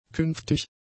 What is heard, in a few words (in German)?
"künftig", German; adjectives